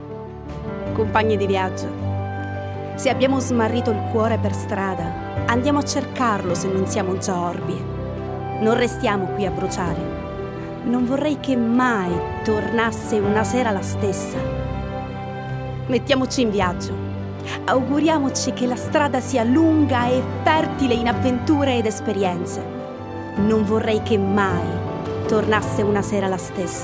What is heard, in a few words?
VOICE F